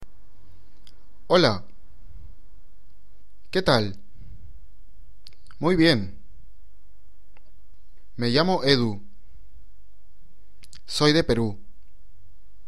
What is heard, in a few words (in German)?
spanisch nachsprechen